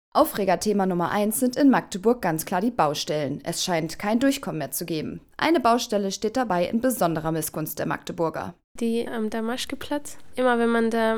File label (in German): mit Atmo in Sprechertext; Damaschkeplatz